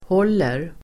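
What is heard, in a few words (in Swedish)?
"häller", Swedish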